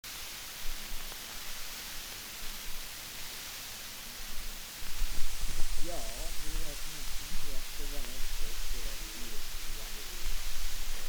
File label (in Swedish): dialekter; Folkminnen